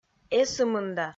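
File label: "эсымында", Komi, female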